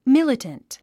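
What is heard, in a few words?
"militant", English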